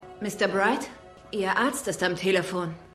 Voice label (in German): Polizistin; Prodigal Son